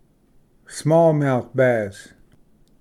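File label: "smallmouth bass", English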